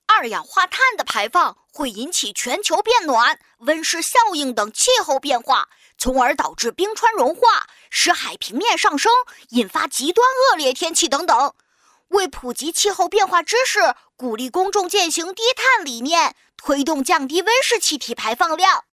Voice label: 女 卡通角色 男孩 高亮; 可爱

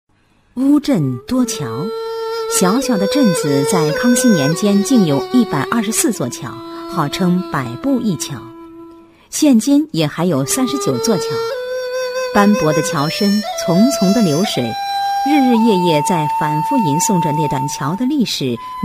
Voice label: 女 宣传片配音 宁静缓缓, 沉稳大气; 乌镇宣传

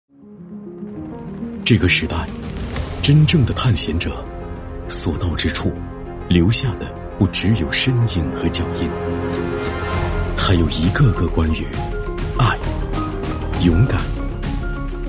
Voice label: 男 汽车广告 大气品质TVC; 大气主流; 《福特》

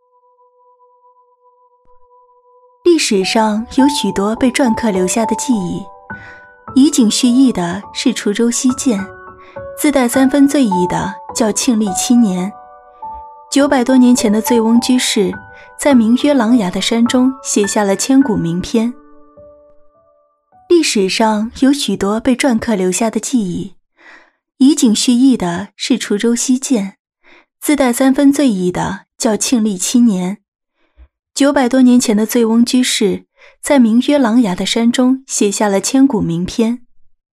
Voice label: 女 城市宣传 甜美柔和; 年轻时尚

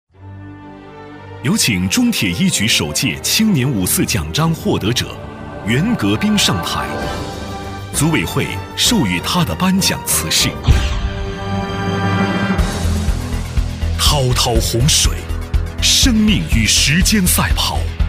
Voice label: 男 年轻 大气 颁奖类 优秀青年; 高端磁性; 高端大气

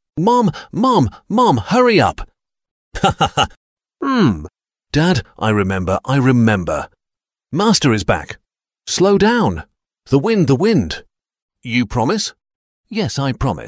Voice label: 英式男声 角色

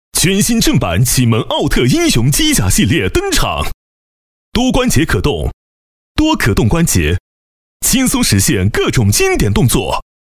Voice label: 男 玩具广告 激昂 力度; 游戏机甲; 磁性质感

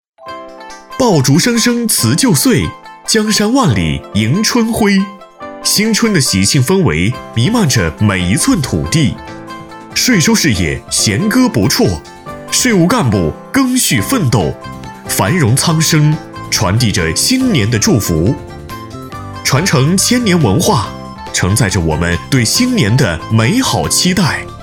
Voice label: 男 广告配音【过年祝福】喜庆激情; 大气主流; 成熟厚重

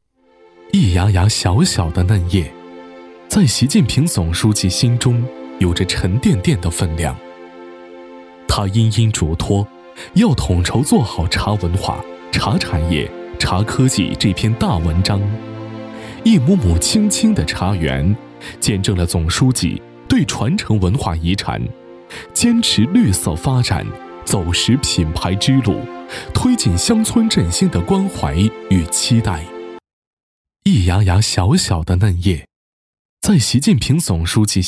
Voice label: 男 纪录片 讲解感, 高端厚重 大气浑厚; 茶叶; 男1014-纪录片【茶叶 讲解感】

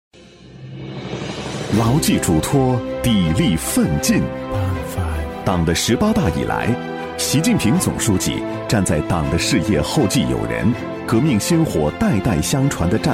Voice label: 男 企业党建 大气朝气; 中国石化 青年一代

